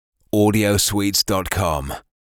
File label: Sweet Intro Example Voice Straight; Radio Geretsried; Sendungen Kempfenhausen